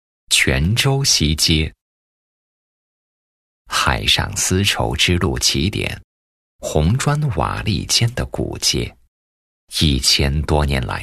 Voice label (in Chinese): 男 纪录片 娓娓道来 古色古香